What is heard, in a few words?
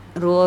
"rowa", andamanese; boat